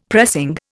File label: "pressing", Indian; Dictionary